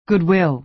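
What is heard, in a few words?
"goodwill", American English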